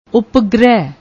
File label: "uppgreh", Punjabi